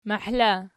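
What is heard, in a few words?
"mahla" nominative, Archi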